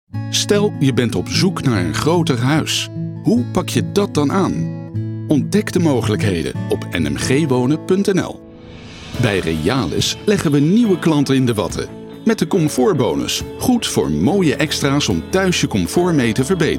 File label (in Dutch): Commercial